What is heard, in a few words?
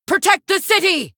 Female Human Attack